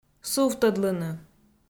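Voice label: "сувтӧдлыны", Komi-Zyrian, female; audio dict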